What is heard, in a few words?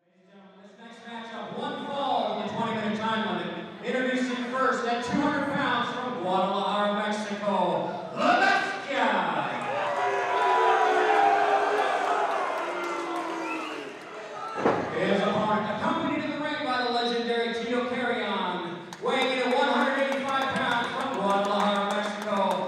wrestling announcement